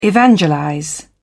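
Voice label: "evangelize", British English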